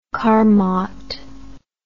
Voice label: "carmot", English

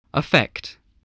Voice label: "affect", English voice

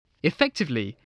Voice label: "effectively", English

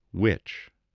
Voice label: "witch", voice, english

